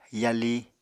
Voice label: "yali", Apatani